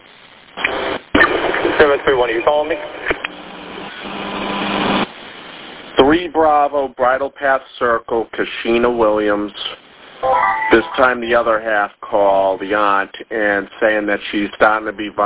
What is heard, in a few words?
Police Radio